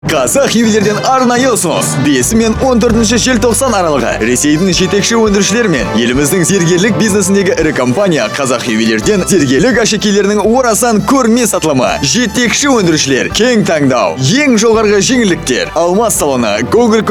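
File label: kazak male commercial; voice demos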